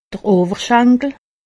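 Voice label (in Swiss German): "oberschankel", Haut Rhin; medecine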